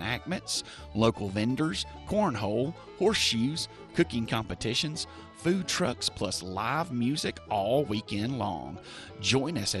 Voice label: Pioneer Days Announcement